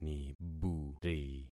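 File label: "niburi", concatenated syllables; Affixing experiment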